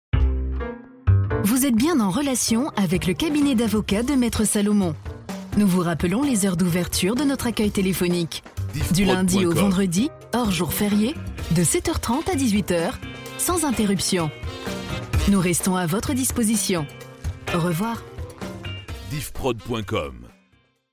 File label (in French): Repondeur, telephonie svi